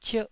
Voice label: "cierh1", Taiwanese